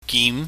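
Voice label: "gim", Cantonese, mid rising tone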